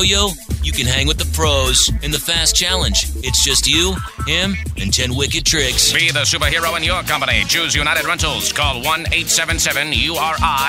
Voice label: comm, male voice talent